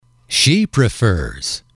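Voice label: "she prefers", English, male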